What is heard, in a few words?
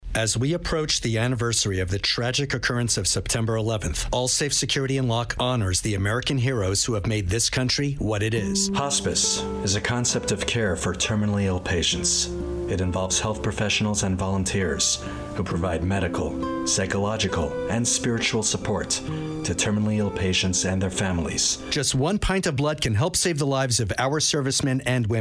PSA, VO